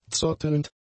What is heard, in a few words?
"zottelnd", German; adjectives